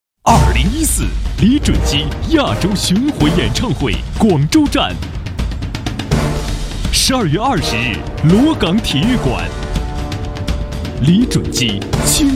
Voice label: 男 广告

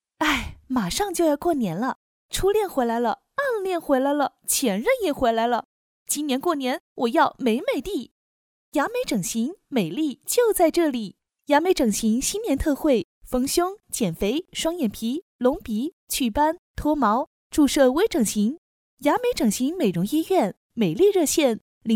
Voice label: 电视广告

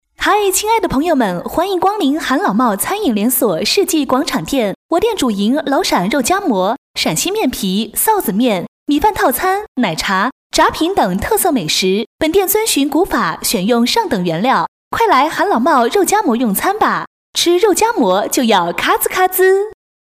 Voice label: 女 广告 餐饮连锁; 韩老冒

